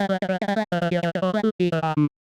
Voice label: medium clear sage; voxel audio preview

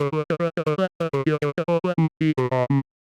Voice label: big gravelly gruff; voice archetypes; voxel audio preview

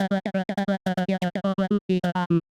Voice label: medium clear deadpan, voice archetypes; voxel audio preview

